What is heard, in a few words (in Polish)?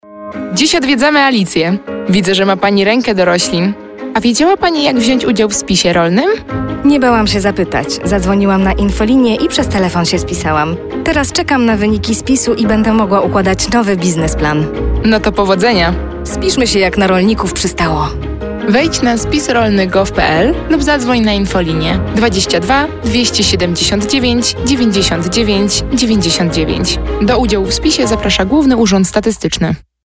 spot radiowy; spis przez telefon